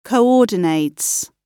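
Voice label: "coordinates", British English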